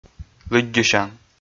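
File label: "лыддьысян", Komi, male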